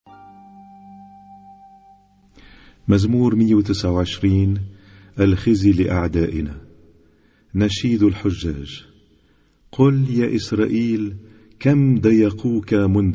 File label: Arabic Psalm Zaboor